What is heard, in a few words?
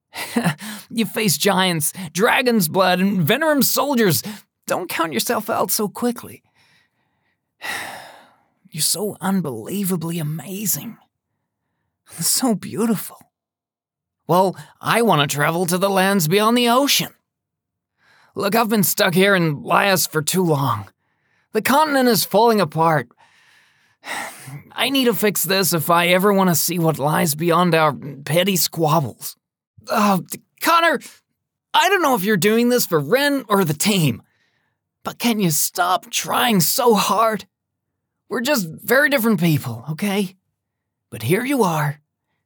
Young Man US Character Samples